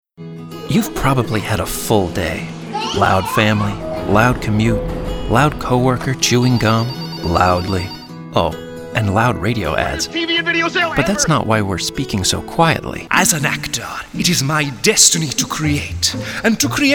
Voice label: Commercial